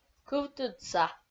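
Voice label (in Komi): "кывтыдса", Komi, female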